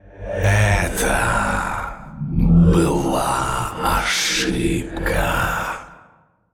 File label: Male Old God In Play